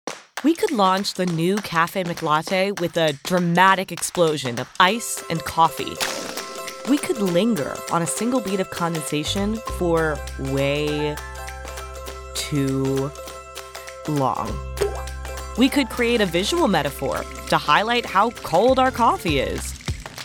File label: Commercial VO